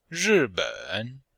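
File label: "ri4ben3", Chinese; Cours de Chinois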